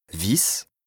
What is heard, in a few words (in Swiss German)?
"wiss", Alsace, BAS RHIN; blanc; lexique indispensable